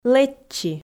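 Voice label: "лэччи", Komi, female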